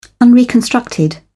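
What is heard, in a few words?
"unreconstructed", British English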